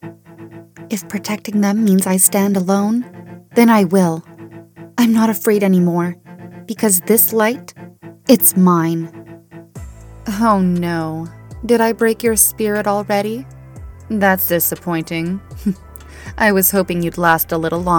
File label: EN Animation